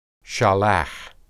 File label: "shalah", Hebrew